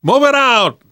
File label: move on, survivor voice